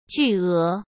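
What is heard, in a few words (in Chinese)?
"巨额", Mandarin Chinese; chinese flashcards; hsk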